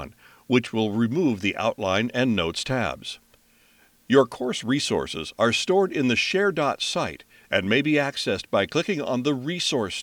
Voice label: Training Narration; WSDOT